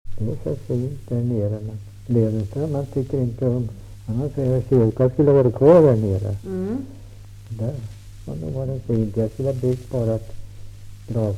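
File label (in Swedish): Ramundeboda Närke, dialekter; Folkminnen; Svenska sagor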